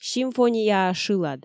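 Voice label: "симфонияа шылад", Komi, female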